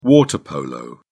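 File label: "water polo", British English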